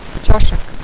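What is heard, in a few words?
"cosak", Serbian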